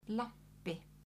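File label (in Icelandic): "lampi", Icelandic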